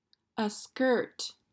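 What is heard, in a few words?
"A skirt", English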